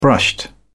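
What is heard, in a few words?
"brushed", English, British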